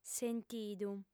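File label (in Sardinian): "sentidu", female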